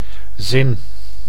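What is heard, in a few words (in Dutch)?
"zin", Dutch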